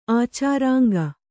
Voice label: "ಆಚಾರಾಂಗ", Kannada

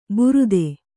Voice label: "ಬುರುದೆ", Kannada

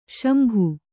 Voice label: "ಶಂಭ", Kannada

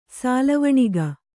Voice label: "ಸಾಲವಣಿಗ", Kannada

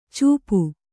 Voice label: "ಚೂಪು", Kannada

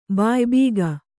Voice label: "ಬಾಯಿಬೀಗ", Kannada

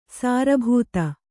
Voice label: "ಸಾರಭೂತ", Kannada; sāra bhūta

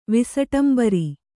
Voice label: "ವಿಸಟಂಬರಿ", Kannada

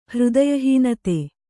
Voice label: "ಹೃದಯಹೀನತೆ", Kannada

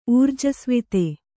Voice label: "ಊರ್ಜಸ್ವಿತೆ", Kannada

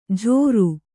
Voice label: "ಝೋರು", Kannada

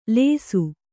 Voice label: "ಲೇಸು", Kannada

